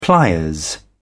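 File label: "pliers", British English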